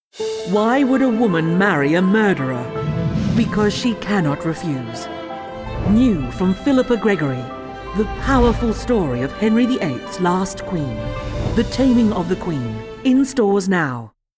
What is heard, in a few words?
radio ad for book